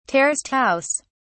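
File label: "terraced house", English, British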